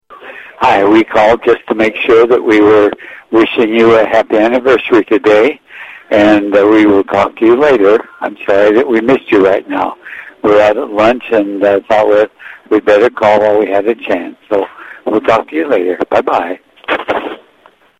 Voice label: wishing us Happy Anniversary, answering machine messages